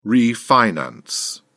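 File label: "refinance", English, uk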